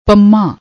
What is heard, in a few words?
"pamma", Punjabi